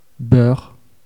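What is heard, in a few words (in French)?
"beurre", French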